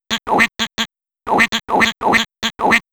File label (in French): Voix météorologique; cartoonesque; Goblins 3